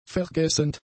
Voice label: "vergessend", German; adjectives